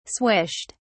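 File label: "swished", British English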